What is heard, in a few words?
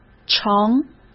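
"盛", Mandarin Chinese; hsk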